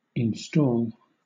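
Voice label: "install", British English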